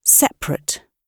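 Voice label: "separate", British English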